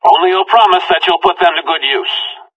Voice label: conversation; Left 4 Dead 2

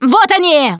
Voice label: incoming, russian, Survivor Voice Producer

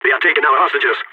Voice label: hostages being taken, balkan; Counter-Strike Global Offensive

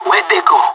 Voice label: lost enemy, pirate; Counter-Strike Global Offensive

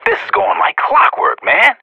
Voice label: radio bot cheer, professional; player vo

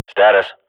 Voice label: radio bot report, Counter-Strike swat; Global Offensive; player vo

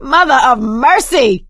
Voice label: reaction negative, survivor voice

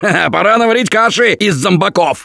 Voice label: grenade launcher, russian; Gambler

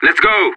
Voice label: radio lets go, separatist; player vo

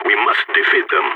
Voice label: radio lock n load, balkan; Counter-Strike Global Offensive

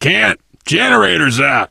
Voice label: initial meeting, survivor voice biker

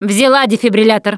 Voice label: defibrillator, russian; Producer

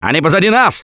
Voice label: watch out behind, russian; Gambler

